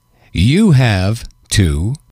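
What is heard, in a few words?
you have to, English, male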